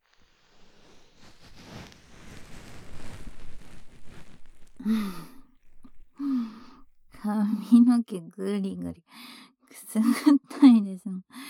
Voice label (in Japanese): 左 こしょこしょ囁き